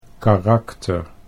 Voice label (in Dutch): "karakter", Dutch